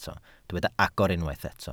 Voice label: "agor", Welsh

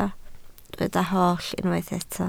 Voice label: "holl", Welsh

